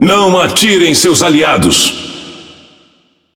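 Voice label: Brazilian Portuguese Speech Friendly Fire Hit Alert; World Of Warships; PT BR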